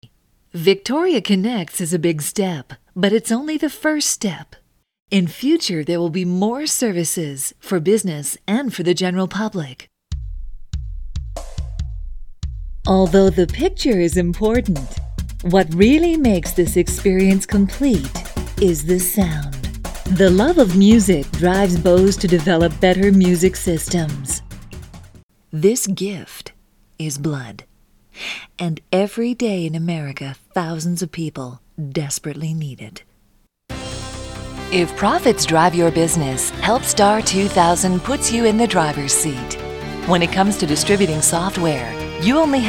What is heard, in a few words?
narration